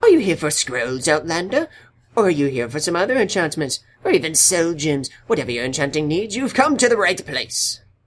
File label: wood elf sleeze